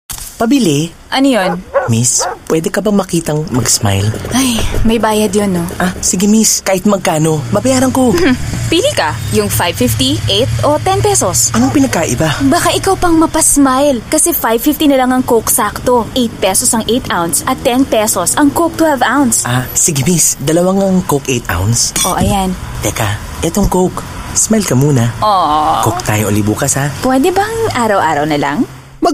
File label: Tagalog, Filipino Male; Coke